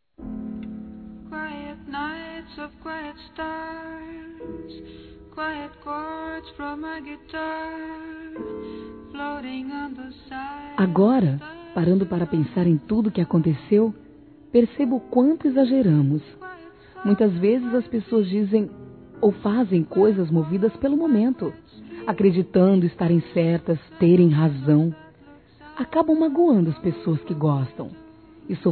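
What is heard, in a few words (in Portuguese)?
Desculpas romantica, Telemensagens, female; Telemensagens 2017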